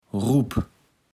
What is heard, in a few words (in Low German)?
"rup", Platt